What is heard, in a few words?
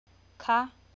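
"คะ", Thai, high tone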